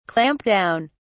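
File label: "clamp down", British English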